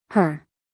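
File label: "her", American English, female; 1000 hours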